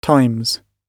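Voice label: "times", British English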